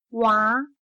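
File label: "娃" Chinese, Wá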